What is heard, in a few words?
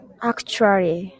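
"actually", Japanese female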